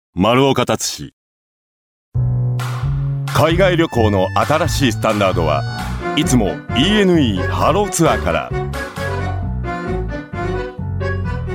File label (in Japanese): 男性ナレーター; Office LADDERS